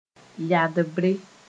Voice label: "yadbre", Moore